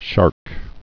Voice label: "shark", English

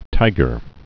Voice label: "tiger", English

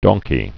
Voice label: "donkey", English

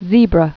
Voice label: "zebra", English

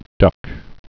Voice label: "duck", English

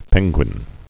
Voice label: "penguin", English

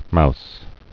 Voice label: "mouse", English

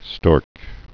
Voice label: "stork", English

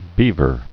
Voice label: "beaver", English

